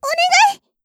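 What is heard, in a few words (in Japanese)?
"お願い！" Japanese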